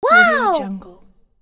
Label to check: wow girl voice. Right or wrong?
Right